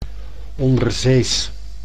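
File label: "onderzees", Dutch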